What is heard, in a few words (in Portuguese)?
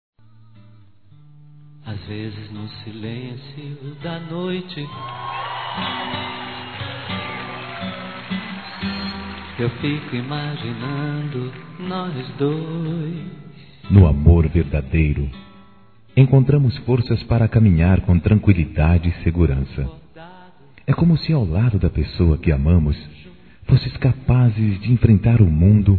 NEUTRO M, Telemensagens, masc; Telemensagens 2017